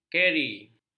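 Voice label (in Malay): "keri", Malay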